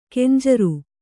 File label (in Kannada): "ಖಂಜರಿ", kannada